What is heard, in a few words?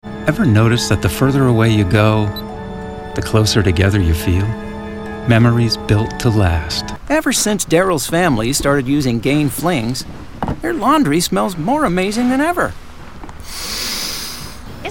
Commercial